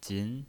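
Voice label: "jin", second tone, pinyin voice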